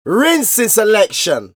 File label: Vocals; Jungle Frenzy 1